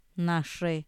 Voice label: peñasco, montaña, Mazateco; INALI

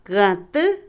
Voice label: colibrí, Otomí, mujer; Animales; INALI

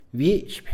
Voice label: exprimir, Mixe, hombre; Acciones; INALI

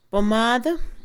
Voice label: pomada, Mixe, Mujer; Ropa; INALI

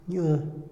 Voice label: "dulce", Otomí, hombre; Percepción; INALI

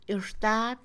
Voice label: Mixe, Mujer; buscar; Posesión; INALI

